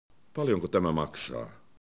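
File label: "paljonko", Finnish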